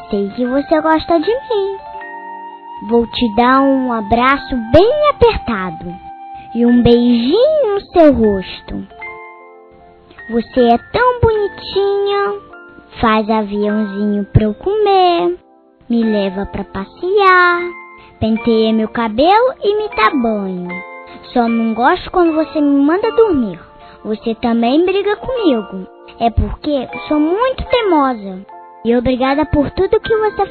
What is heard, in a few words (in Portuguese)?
OI MAMÃE (INFANTIL) F, Telemensagens, Dia das Mães; Telemensagens 2017